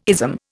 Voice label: "ism", Indian